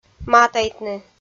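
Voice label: "матайтны", Komi, female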